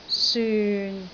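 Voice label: "syun6", Cantonese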